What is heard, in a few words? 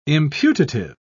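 "imputative", British English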